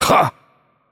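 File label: Attack Heavy, Korean; ko KR; VO; bloom minigame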